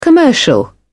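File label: "commercial", English, British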